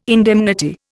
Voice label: "indemnity", Indian